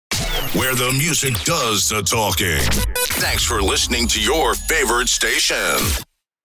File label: Male radio voice dj sweeper where the music does the talking; broadcasting